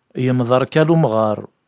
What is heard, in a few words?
Kabyle